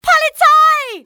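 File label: call police, female; XBOX; civ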